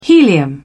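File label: "helium", British English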